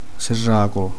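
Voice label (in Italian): "sega", Italian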